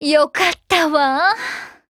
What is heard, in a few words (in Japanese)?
VO jp